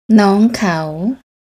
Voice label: "Norng Kao", Thai; desire requesting

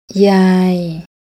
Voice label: "Yaai", Thai; desire requesting

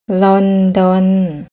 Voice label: "Lorn dorn", Thai; desire requesting

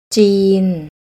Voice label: "Jeen", Thai; test thai tones

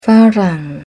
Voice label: "Farang", Thai; test thai tones

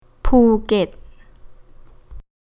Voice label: "Phuket", Thai

